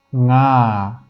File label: "nga", Wu Chinese; romanization